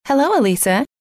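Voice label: "hello", female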